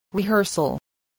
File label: "rehearsal", spelling voice